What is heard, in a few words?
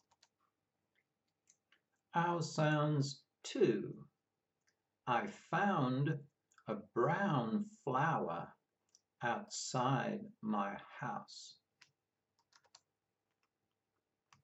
Ou sounds, pronunciation of australian english